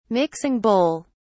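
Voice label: "mixing bowl", British English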